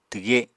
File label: "twge", Apatani